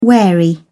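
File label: "wary", British English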